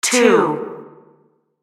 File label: Announcer; Pitch Black Audio Pong